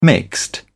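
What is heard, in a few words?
"mixed", British English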